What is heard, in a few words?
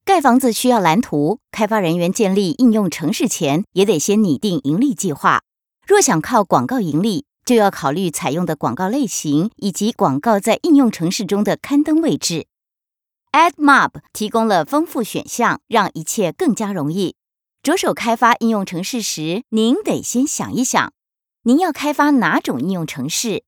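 Taiwanese Mandarin female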